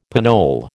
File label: "pinole", American